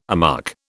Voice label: "amuck", British